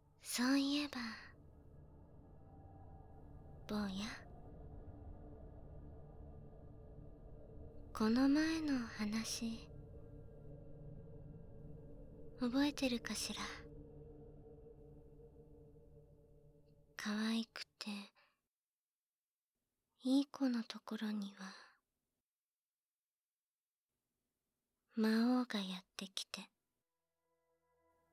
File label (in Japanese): 怖いママの耳かき右耳